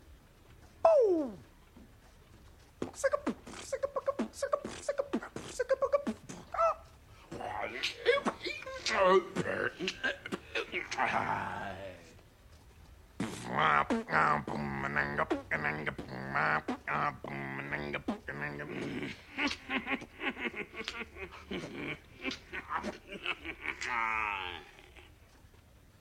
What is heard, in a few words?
Alien Speech